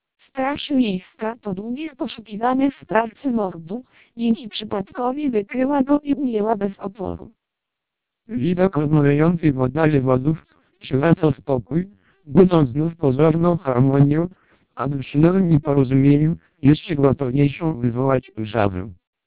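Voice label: TWELP 400 bps Vocoder, Polish speech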